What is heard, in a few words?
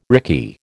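"rickey", American English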